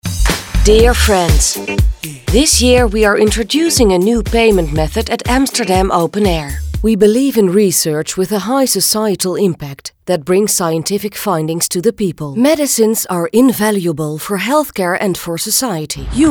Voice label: stemdemo English